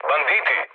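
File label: Serbian enemy detected mood low; speech; War Thunder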